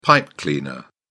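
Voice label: "pipe cleaner", British English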